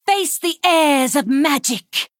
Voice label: Female Blood Elf Play